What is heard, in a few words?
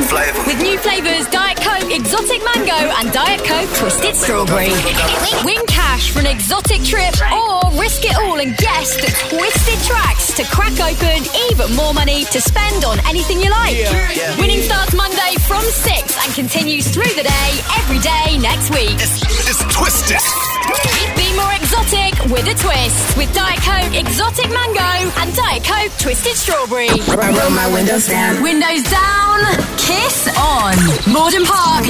female english mobile and radio promo; Devine Voices